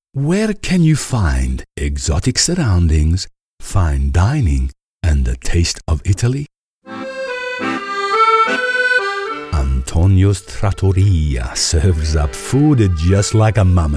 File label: Italian Accent